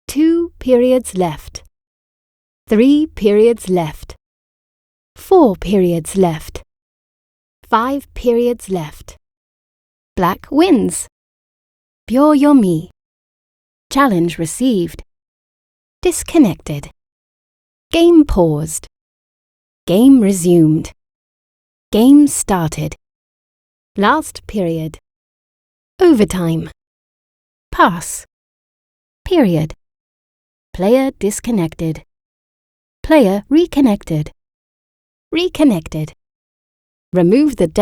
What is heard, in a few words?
en-gb phrases